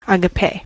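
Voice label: "agape" noun, US English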